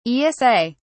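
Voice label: "esa", British English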